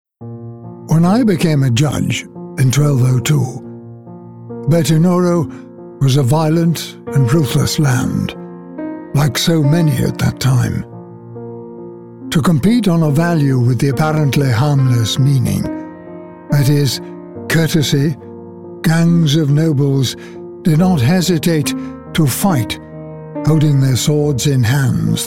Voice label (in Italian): inglese